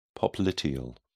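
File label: "popliteal", British English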